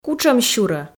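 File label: "кутшӧмсюрӧ", Komi, female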